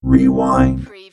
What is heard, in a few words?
Robot voice, "rewind"; lynne publishing